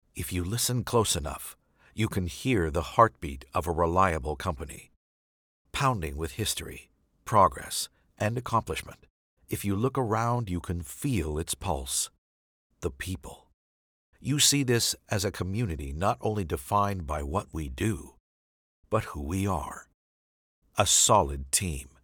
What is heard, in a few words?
english canadian corporate videos